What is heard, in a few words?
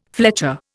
"fletcher", Indian